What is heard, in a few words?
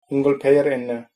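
name, Tamil, male; Tamil (formal); உங்கள் பெயர் என்ன?; ungal peyar enna?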